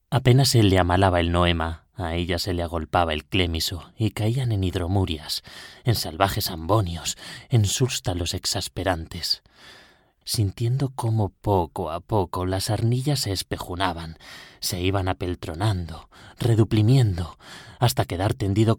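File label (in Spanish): otros España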